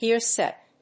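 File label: "gear set", English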